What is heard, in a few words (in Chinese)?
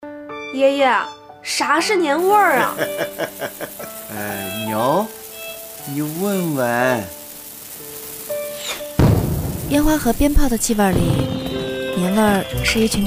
女 走心旁白; 河南广电; 年味